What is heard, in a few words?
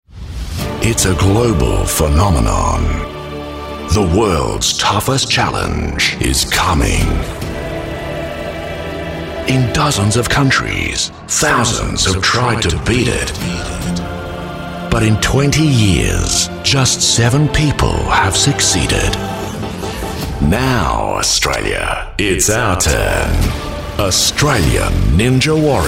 Ninja Warrior Promo